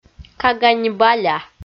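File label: "кага не баля" Komi, female; kpv audio dict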